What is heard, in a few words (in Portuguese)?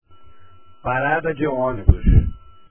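"parada" Portuguese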